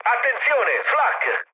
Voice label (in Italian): damaged aa, mood low, speech it; War Thunder